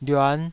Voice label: "lyuan5", Taiwanese

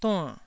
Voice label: "duann4", taiwanese syllable

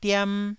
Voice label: "diam1", taiwanese syllable

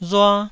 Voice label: "rua1", taiwanese

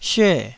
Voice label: "syue4", Taiwanese

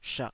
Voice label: "shak3", taiwanese

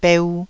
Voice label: "beu1", taiwanese

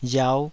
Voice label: "riau5", taiwanese

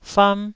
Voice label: "fam1", Taiwanese

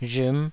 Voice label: "rhm5", taiwanese

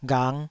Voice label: "ghang5", Taiwanese

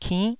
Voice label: "kinn9", taiwanese syllables

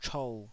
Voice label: "chou3", Taiwanese

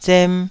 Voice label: "zem2", taiwanese syllables

